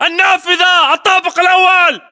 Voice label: location first floor window, battle chatter, english